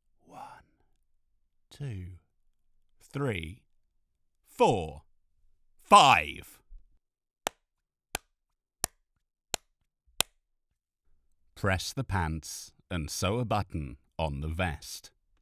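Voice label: Studio Sample, British Male Voice Actor, British RP voice; Raw Studio Sample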